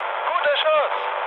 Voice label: player killed enemy, mood med, speech ge

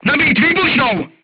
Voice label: voice message load HE, crew tanks czech

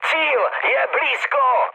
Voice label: near waypoint, speech cze, mood high; War Thunder

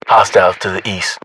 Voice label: uk threat infantry east, battle chatter, English

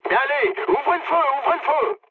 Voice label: attack position mood low, speech fr; War Thunder

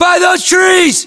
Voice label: landmark near trees, US; localized english; battlechatter

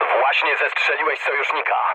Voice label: pol player killed ally mood low, speech pol; War Thunder

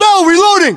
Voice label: inform reloading bell, english, us

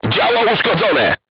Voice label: voice message weapon damaged, crew tanks polish

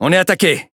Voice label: Speech Pilots Torpedo Under Attack, FR; World Of Warships

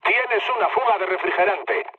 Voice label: damaged water, mood low, speech; War Thunder

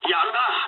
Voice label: jp trick, speech jp

